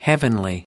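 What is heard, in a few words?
"heavenly", American English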